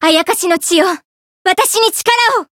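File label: variable chant, Japanese; tsukiha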